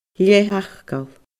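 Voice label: "leth-fhacal", Scottish Gaelic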